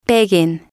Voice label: "peguen", spanish

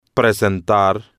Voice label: "presentar", Spanish

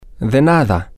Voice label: you're welcome, spanish, M

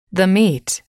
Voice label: the meat, English

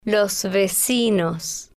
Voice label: "los Vecinos", spanish

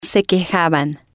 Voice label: "se quejaban", spanish

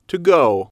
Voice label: "to go", English

